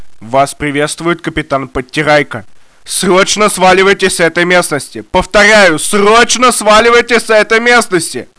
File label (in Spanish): radio mensaje